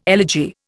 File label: "elegy", Indian